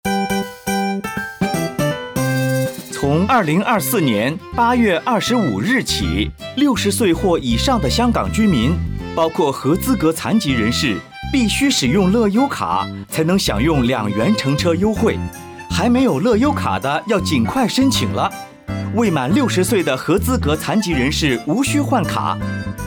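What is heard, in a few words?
Mandarin; 普通话广播; 政府长者及合资格残疾人士公共交通票价优惠计划 (二元优惠计划); 60岁或以上香港居民使用乐悠咭享用二元优惠计划 [2024]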